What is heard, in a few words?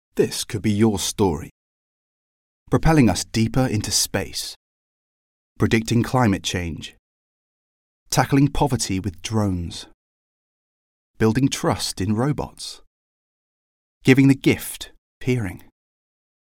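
British English, Commercial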